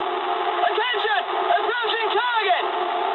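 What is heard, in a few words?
English near waypoint mood high; speech; War Thunder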